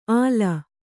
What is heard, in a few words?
"ಆಲ", kannada